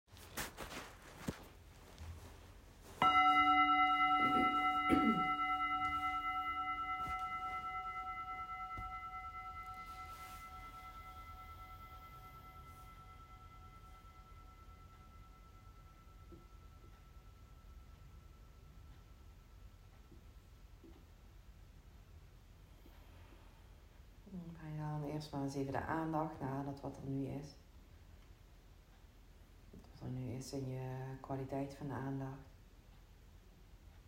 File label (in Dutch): Geluiden lopen bodyscan; mindfulness; Geluiden – lopen – bodyscan (sessie 8 – 54 min)